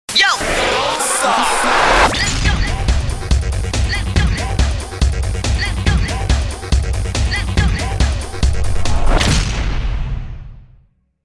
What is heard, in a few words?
Voice Accent - Don't Stop; DJ sounds